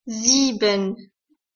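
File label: "sieben", German, seven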